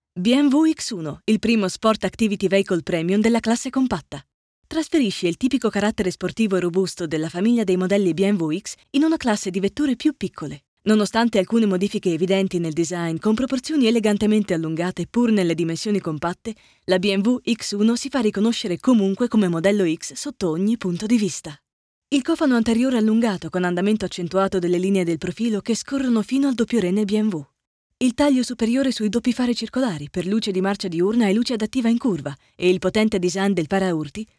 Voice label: Infomercial